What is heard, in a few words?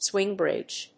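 "swing bridge", English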